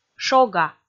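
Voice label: "шога", Komi, female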